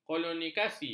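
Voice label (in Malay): "kolonisasi", Malay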